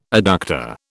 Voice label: "adductor", British